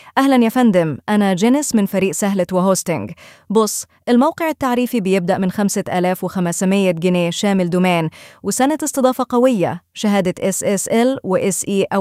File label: voice sample female